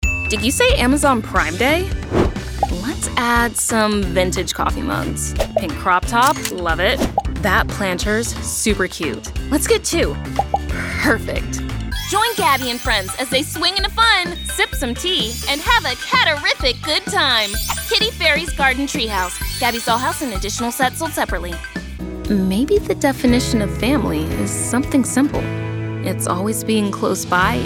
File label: women commercial; From bubbly Gen Z to effortlessly cool and snarky humor. A genuine, approachable African American talent with a lot of energy.